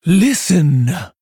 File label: Female Tauren Attack